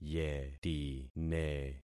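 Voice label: "yetine", concatenated syllables; Affixing experiment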